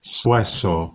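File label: "swesor", Proto-Indo-European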